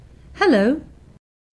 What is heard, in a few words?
english hello